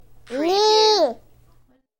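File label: Toddler Nay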